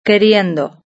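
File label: "queriendo", Spanish; verb-conjugator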